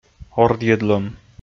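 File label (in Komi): "ордйӧдлӧм", Komi, male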